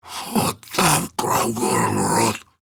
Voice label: Idle Grineer Ghoul Sarge, en; Grineer Ghoul Drill Sargeant; Battle Barks